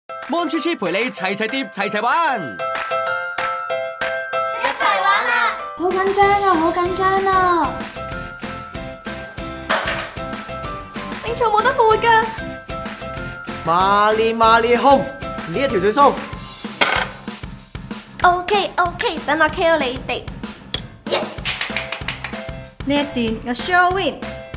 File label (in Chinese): 粵語男聲; Monchhichi 齊齊疊 齊齊玩